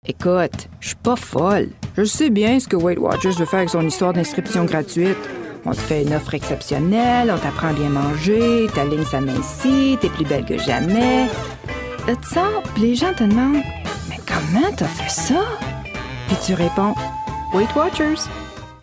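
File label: French Canadian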